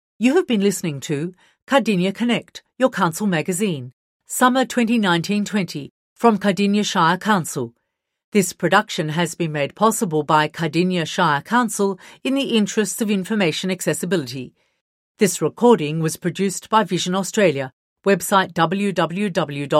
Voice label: closing announcement